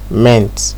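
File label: "ment", Nigerian Pidgin, male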